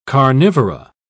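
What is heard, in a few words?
"carnivora", British English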